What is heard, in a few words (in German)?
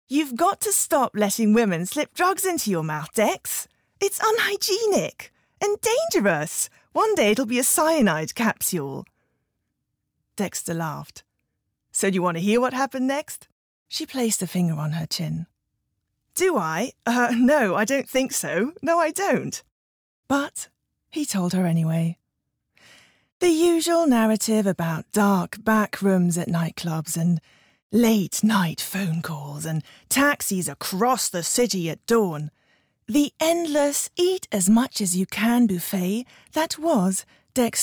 Lesung Englisch; One Day